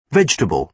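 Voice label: "vegetable", British English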